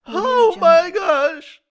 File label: male oh my gosh